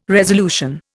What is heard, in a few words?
"resolution", Indian